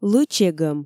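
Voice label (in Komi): лы чегӧм, Komi, female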